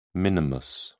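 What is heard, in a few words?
"minimus", British English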